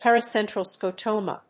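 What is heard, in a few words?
"Paracentral Scotoma", English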